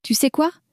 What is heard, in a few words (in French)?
"tu sais quoi", French